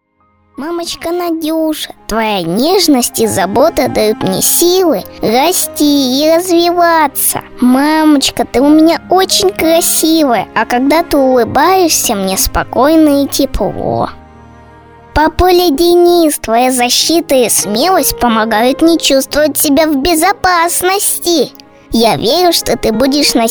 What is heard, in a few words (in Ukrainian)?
rosijskoyu; avtorska pisnya